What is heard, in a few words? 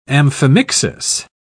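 "amphimixis", British English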